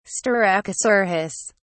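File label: "styracosaurus", English, uk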